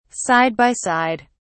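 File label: "side by side", British English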